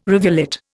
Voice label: "rivulet", Indian